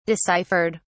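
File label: "deciphered", British English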